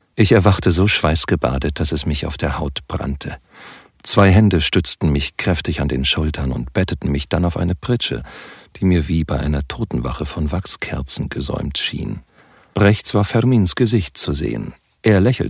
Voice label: DE Hoerbuch; Zarfon

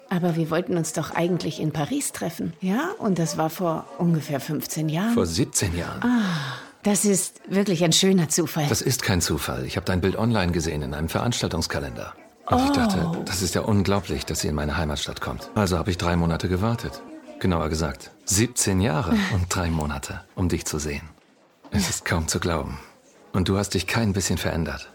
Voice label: Synchron, DE; ModLove

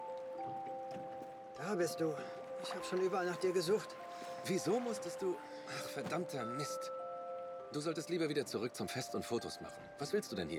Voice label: DE Synchron; german voice actor; HTCHA Chun Jae

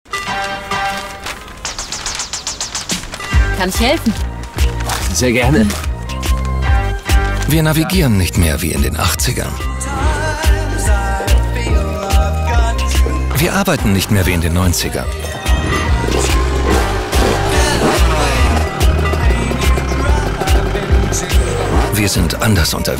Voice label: DE Werbung; Union Investment

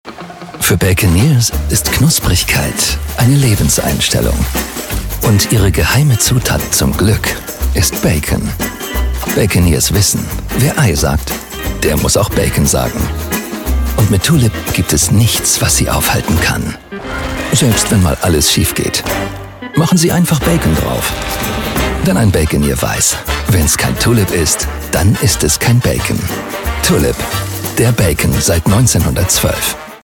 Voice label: Werbung, DE; german voice actor

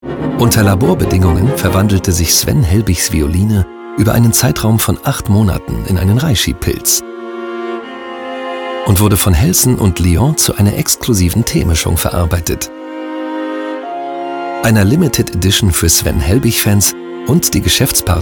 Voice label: DE Casefilm; Metamorphosis

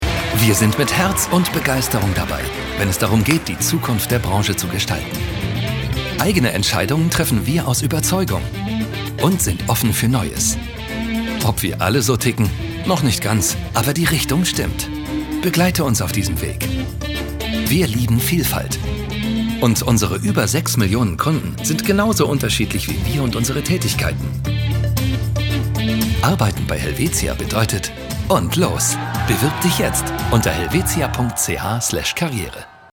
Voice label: Imagefilm, DE; Helvetia

